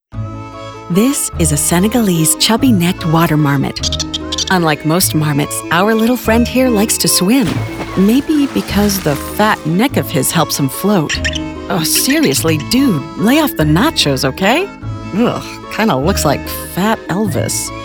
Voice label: EXPLAINER